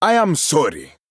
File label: Male Troll Sorry